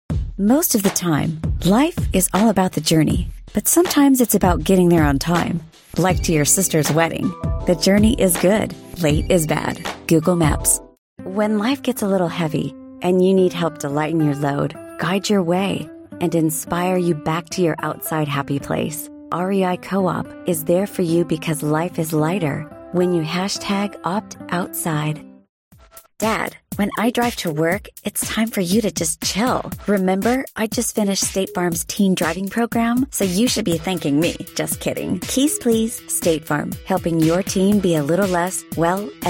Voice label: english american promos